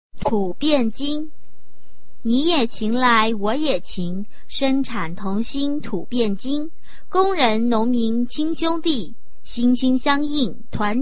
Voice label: chinese tongue twister